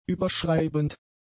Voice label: "überschreibend", German; adjectives

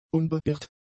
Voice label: "unbeirrt", German; adjectives

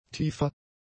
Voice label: "tiefer", German; adjectives

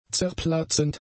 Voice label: "zerplatzend", German; adjectives

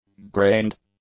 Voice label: "grained", english; adjectives

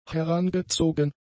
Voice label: "herangezogen", German; adjectives

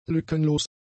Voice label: "lückenlos", German; adjectives

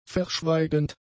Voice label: "verschweigend", German; adjectives

